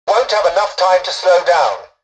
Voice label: tutorial dialogue, english; War Thunder